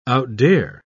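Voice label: "outdare", British English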